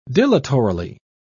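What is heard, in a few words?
"dilatorily", British English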